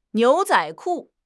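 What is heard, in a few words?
"niu2 zai3 ku4", Chinese; 汉语口语新时代-初级下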